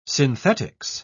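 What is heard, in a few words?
"synthetics", British English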